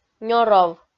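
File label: "нёров", Komi, female; kpv; audio dict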